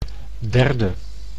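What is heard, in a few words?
"derde", Dutch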